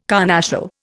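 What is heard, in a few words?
"carnassial", Indian English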